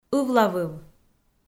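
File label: "ывлавыв", Komi, female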